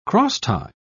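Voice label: "crosstie", British English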